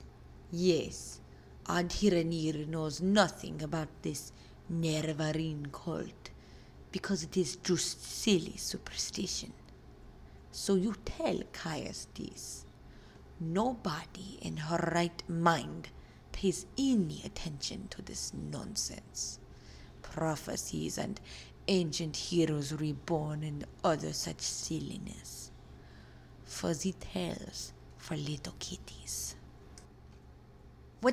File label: audition khajiit; skywind